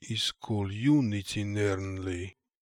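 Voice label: "Iskoloonizinernly", pronunciations; Fourth Way; Beelzebub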